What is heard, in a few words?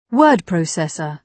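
"word processor", British English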